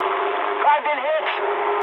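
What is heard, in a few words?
English aircraft damaged mood med; War Thunder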